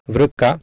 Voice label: "vrka", Sanskrit

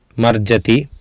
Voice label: "marjati", Sanskrit

